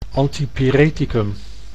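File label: "antipyreticum", Dutch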